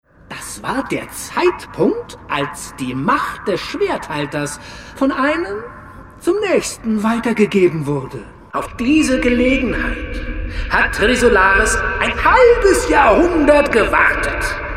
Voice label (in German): Hörspiel; Jenseits der Zeit